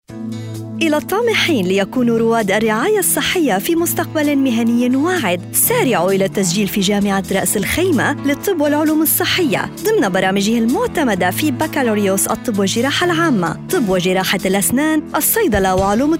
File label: University Commercial